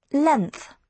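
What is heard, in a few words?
"length", British English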